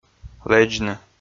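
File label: "лэдзны" Komi, male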